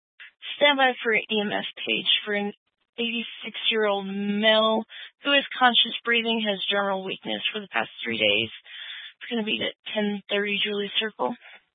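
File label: County Fire, Scanner audio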